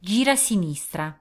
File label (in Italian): Turn Left, Italian, female